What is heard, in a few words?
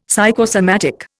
"psychosomatic", Indian; Dictionary